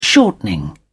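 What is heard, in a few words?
"shortening", British English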